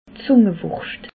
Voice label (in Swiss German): "zungewurscht", alsace